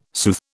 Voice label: "soothe", British